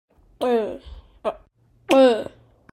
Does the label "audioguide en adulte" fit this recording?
No